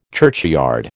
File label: "churchyard", American